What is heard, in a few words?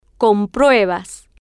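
"com-prue-bas", Spanish, female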